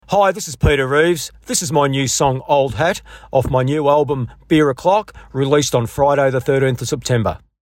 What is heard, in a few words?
radio intro